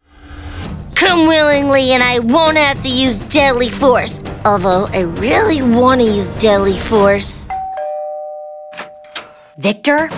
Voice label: Voiceover Animation; New York